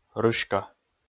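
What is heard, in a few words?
"рыська", Komi, male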